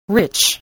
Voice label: "rich", English